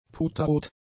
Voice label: "puterrot", German; adjectives